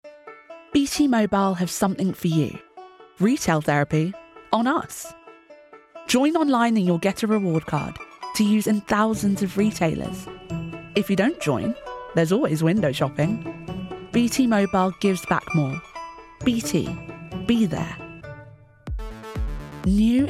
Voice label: COMMERCIALS